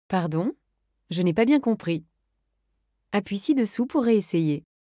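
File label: wake up error, French; Bixby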